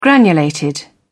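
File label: "granulated", British English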